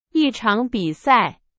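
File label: Chinese, example sentence; hsk2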